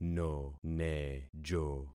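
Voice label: "nonejo", concatenated syllables; Affixing experiment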